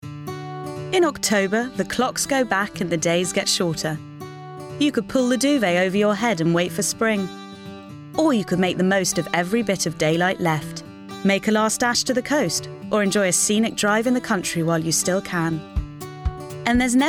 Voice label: Commercial